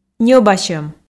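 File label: "ньӧбасьӧм", Komi, female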